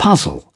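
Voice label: "putz", British English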